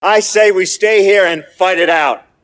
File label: battlecry; ash